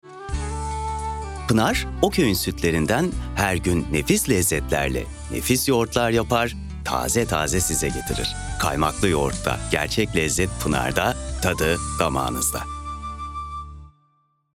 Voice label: enerjik esprili

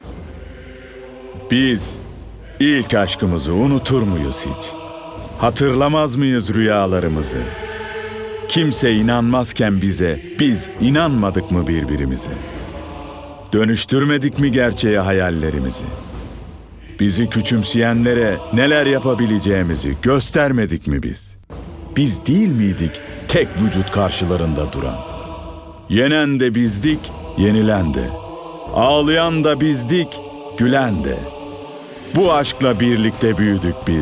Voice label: Duygusal Motive

